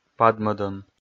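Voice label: "падмӧдӧм", Komi, male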